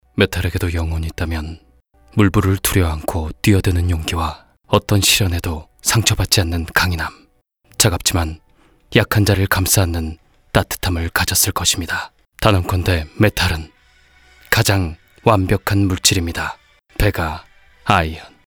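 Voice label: korean male narration; voice demos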